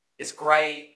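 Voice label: Voice Acting, Spanish, male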